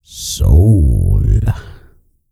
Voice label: E SPEECH, Vocal Planet R&B Dance; BASS CATCH; Spectrasonics